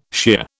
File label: "shear", British